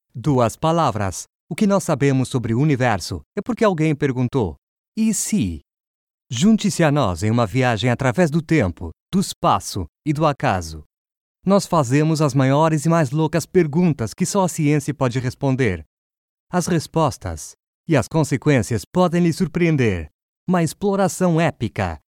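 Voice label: Video Trailer Portuguese Brazilian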